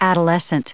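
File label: "adolescent", English, us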